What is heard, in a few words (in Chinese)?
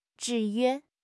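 "制约", Chinese; HSK6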